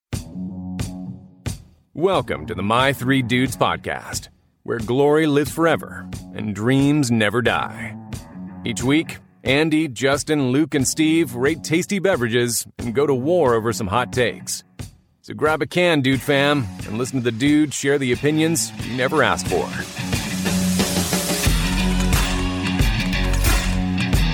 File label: english american podcasts; Italie